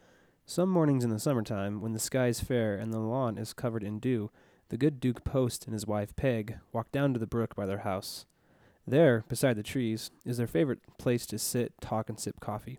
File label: Western male RP